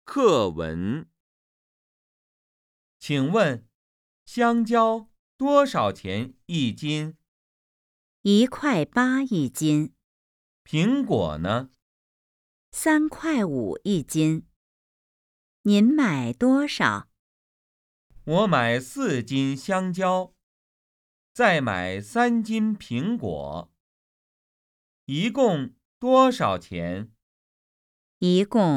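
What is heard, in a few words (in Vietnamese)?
hoi thoai, Chinese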